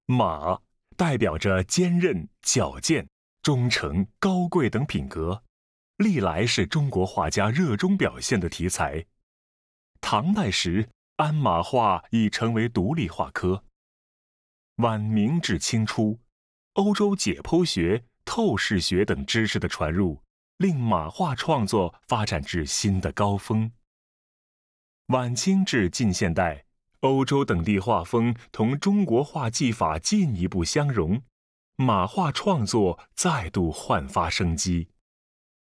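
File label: Mandarin, audio guide; 展览简介; Heavenly Horse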